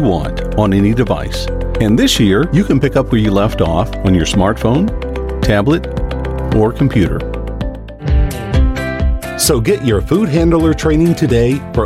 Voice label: Explainer Videos